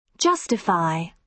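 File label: "justify", British English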